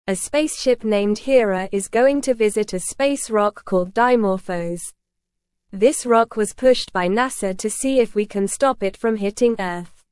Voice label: English Newsroom Beginner NORMAL Reading; Spaceship Hera to Visit Space Rock Dimorphos in 2026